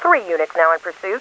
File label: cops, English; Driver